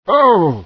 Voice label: fear; Source Engine; bshift; rosenberg